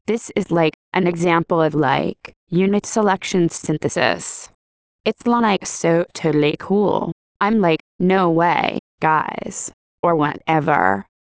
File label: rhetorical us female